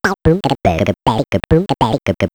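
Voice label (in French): Voix héraut, son cartoonesque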